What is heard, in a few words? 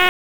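Raleigh Police North District Tactical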